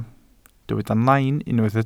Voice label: "nain", Welsh